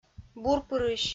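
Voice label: "бурпырысь", Komi, female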